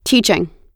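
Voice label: "teaching", US English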